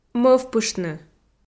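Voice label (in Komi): "мӧвпыштны", Komi, female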